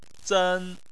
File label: "zen1", Chinese